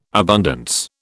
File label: "abundance", British